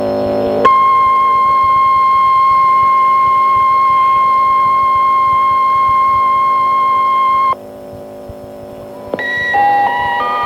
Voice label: Radio Japan Start